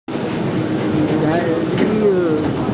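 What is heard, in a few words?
"nai3niu2", Mandarin Chinese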